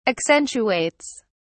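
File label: "accentuates", English, British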